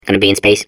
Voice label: babble; Portal 2

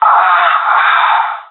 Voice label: death, pirate; Counter Strike Model Pack; CS:GO

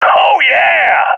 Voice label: cheer, seal epic; vo; Counter Strike Model Pack; CS:GO

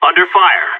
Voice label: radio taking fire, seal, player vo; Counter Strike; CS:GO

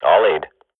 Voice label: radio bot follow me, swat; agents

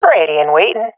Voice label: waiting here, swat fem; Counter Strike Model Pack; CS:GO

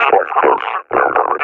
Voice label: taking fire, combine officer; Half Life Alyx; Half Life Model Pack

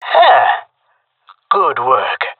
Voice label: compliment, jungle male; player vo; Counter Strike Model Pack; CS:GO

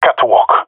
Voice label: "catwalk", male; vo; agents; Source Model Packs; Counter Strike Model Pack; CS2; jungle male epic

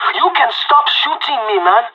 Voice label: friendly fire, pirate; Counter Strike Model Pack; CS:GO

